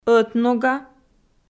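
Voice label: "ӧтнога" Komi, female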